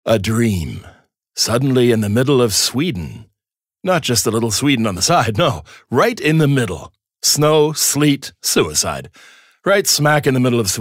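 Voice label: American English, Schauspiel, Neutral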